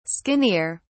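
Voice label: "skinnier", British English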